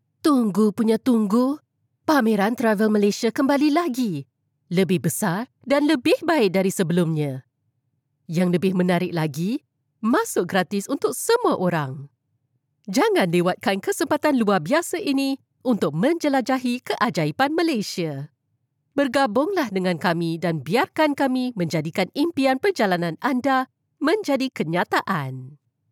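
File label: Malay Malaysia female voice over, sales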